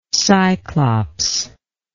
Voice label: "cyclops", english